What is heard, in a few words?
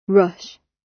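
"rush", British English; Time, history; Thời gian, lịch sử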